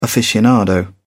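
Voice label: "aficionado", British English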